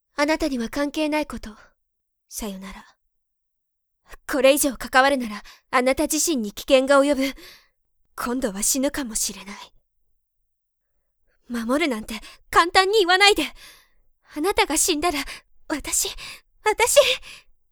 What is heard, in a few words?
sample woman